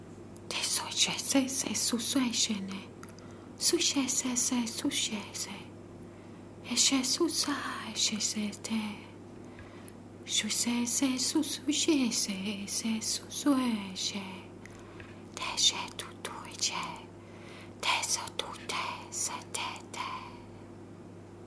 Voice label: Whispers

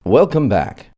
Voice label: Welcome Back Male Announcer Tv Radio Emcee

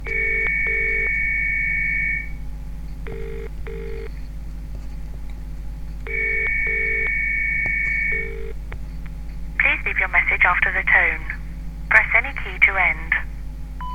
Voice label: Voicemail

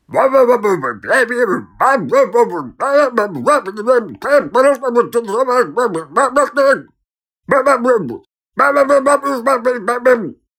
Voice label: Mumbling

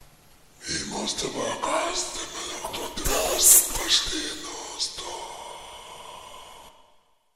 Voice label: Demon Latin

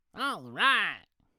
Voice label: Alright Voice Cartoon

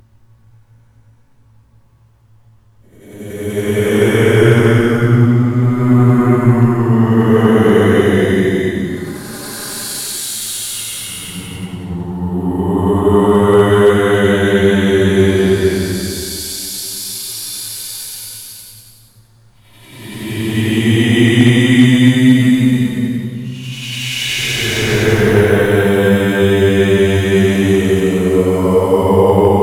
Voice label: Ghost Whispering Forward

